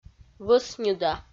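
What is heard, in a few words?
"вӧсньыда", Komi, female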